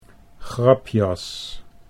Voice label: "grapjas", Dutch

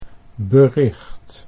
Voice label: "bericht", Dutch

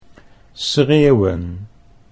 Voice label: "schreeuwen", Dutch

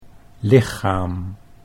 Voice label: "lichaam", Dutch

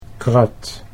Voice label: "krat", Dutch

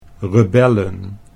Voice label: "rebellen", Dutch

